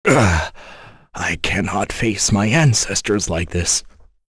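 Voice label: Vox Dead, voices heroes English; kingsraid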